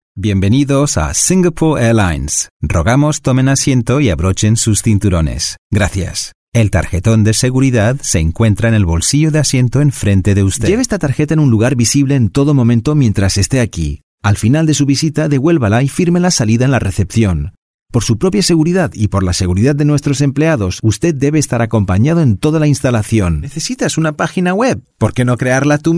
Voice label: Euro Spanish Corporate Spanish

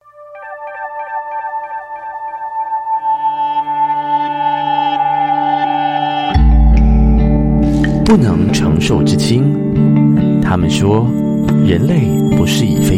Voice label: Mandarin China Night Show Sweep

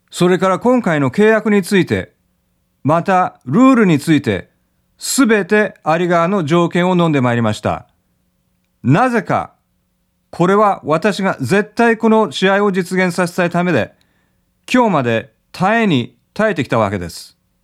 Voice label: Japanese; Inok

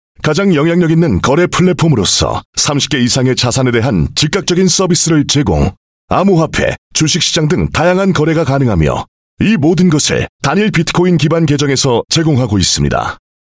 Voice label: Korean

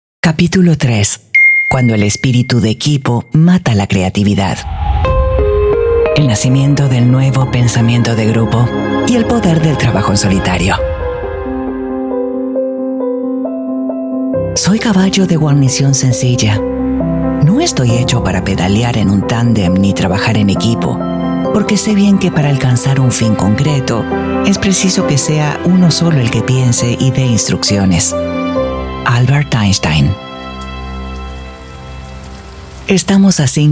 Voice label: Latin Spanish Audiobook Narration

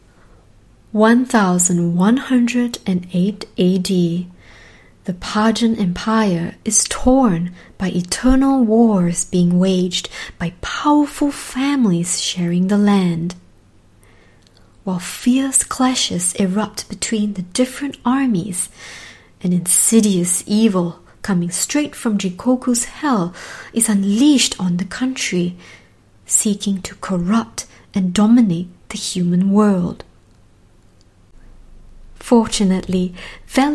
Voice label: Asian English Animation

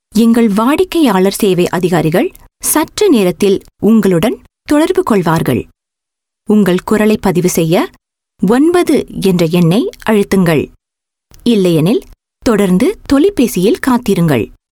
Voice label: Tamil IVR, Female; India

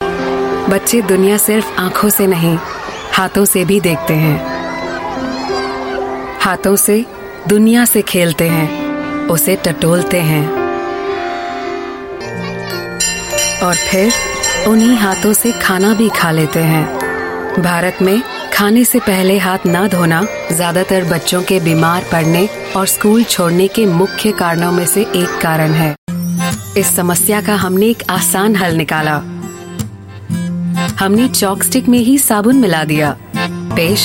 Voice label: Hindi Digital Campaign; Savlon

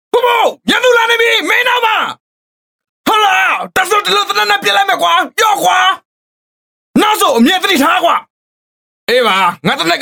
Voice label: Male Burmese Game

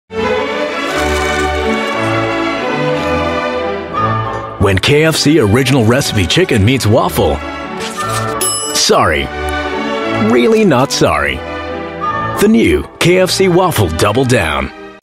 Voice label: Asian English waffle; KFC

